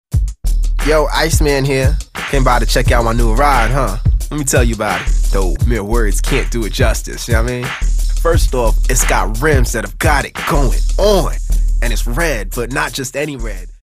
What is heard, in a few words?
hip hop